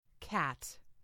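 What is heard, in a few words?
"cat", English; FoodChain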